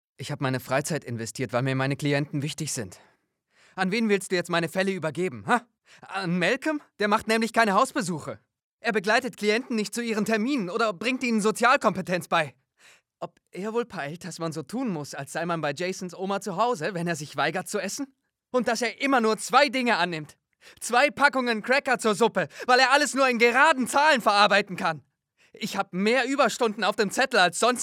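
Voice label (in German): Synchro wütend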